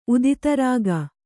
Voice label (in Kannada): "ಉದಿತರಾಗ", Kannada